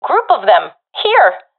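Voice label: sees multiple enemies, professional female; vo; agents; Source Model Packs; Counter Strike Model Pack; CS2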